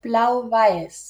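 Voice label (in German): "blau-weiß", German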